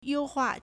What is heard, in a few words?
"you1 hua4", Chinese